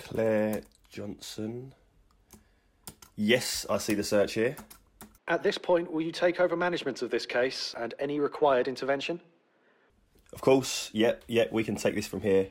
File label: example of alert human review call uk